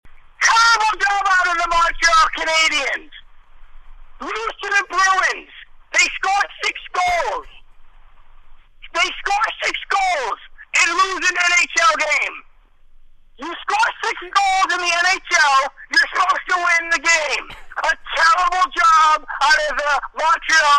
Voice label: hockey rant; WFAN